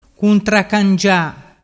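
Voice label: "cuntracangia", Vernazzese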